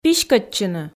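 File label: "писькӧдчыны", Komi, female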